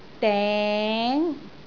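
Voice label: "deng2", Cantonese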